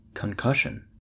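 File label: "concussion", American English; pronunciations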